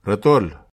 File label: "ratol", netwar